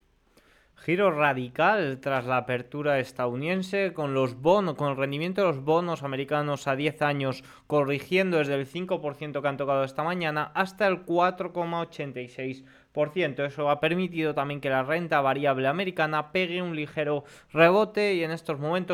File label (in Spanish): radio; noticias bolsa; indices al alza y correcion de los rendimientos